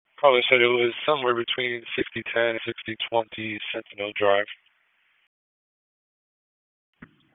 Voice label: FIRE OPS, p25; wake p25